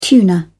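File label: "tuna", British English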